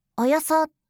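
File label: "oyoso" Japanese; kumiawase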